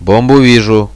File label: bomb here, radio bot; Reallite